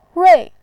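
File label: "rui", Mandarin Chinese, fourth tone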